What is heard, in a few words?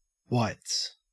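"whites" English, Australian; pronunciations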